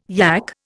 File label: "yack", Indian